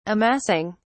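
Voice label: "amassing", English, British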